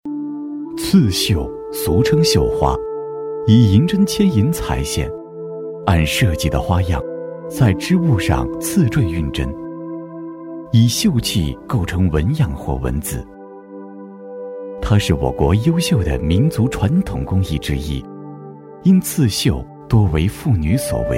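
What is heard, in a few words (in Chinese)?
男国大音-非遗纪录片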